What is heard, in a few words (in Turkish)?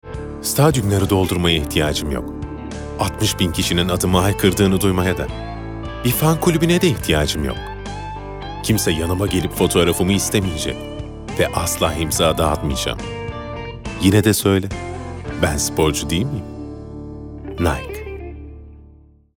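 sicak samimi, seslendirme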